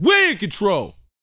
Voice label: TALK F; ILIO; Vocal Planet